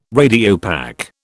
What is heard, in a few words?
"Radiopaque", British